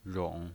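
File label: "rong", Mandarin Chinese pinyin voice, third tone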